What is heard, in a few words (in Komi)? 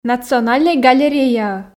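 национальнӧй галереяӧ, Komi, female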